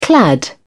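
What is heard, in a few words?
"clad", British English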